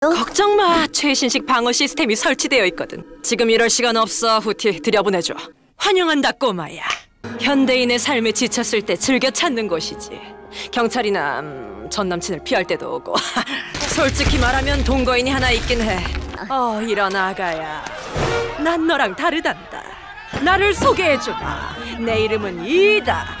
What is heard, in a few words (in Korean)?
40대 유쾌한 마녀